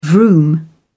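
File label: "vroom", British English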